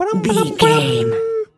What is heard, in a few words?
voice fun character cute cartoon